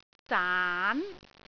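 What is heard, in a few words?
"zaan2", Cantonese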